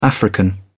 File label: "african", uk